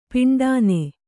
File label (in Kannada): "ಪಿಂಡಾನೆ", Kannada